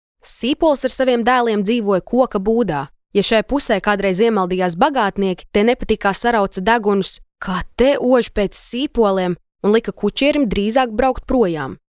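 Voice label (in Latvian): Pasaka Latvian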